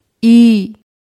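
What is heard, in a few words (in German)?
"Zahn", German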